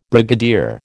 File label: "brigadier", American